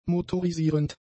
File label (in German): "motorisierend", German; adjectives